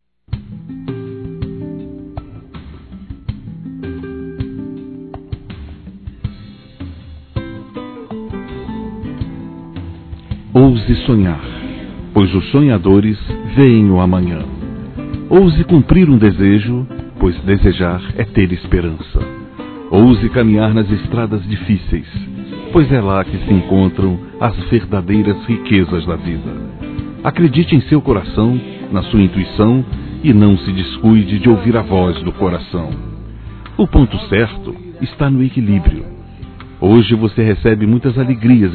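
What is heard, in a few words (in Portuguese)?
Aniversário de Afilhados, male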